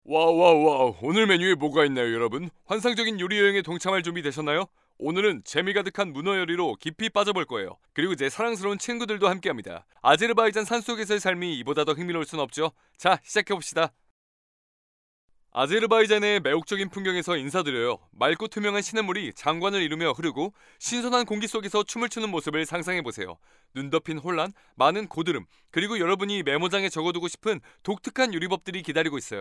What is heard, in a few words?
Korean, M, Explainer